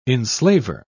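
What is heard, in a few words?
"enslaver", British English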